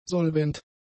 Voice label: "solvent", german; adjectives

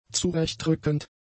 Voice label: "zurechtrückend", German; adjectives

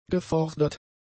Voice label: "gefordert", German; adjectives

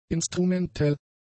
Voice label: "instrumentell", German; adjectives

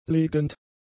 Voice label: "legend", German; adjectives

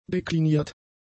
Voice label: "dekliniert", german; adjectives

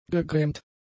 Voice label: "gegrämt", german; adjectives

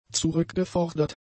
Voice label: "zurückgefordert", German; adjectives

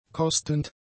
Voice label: "kostend", German; adjectives

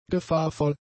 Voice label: "gefahrvoll", German; adjectives

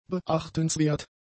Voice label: "beachtenswert", German; adjectives

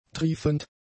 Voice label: "triefend", German; adjectives

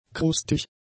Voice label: "krustig", German; adjectives

